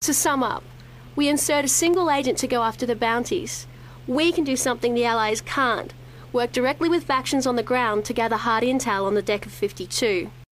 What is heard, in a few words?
voice acting; rec room and mercenaries comparison